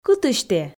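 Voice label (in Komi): кытысь тэ, Komi, female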